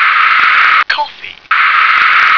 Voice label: "coffee", English